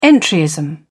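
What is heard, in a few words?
"entryism", English, British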